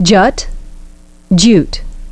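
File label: "jut" "jute", English pronunciation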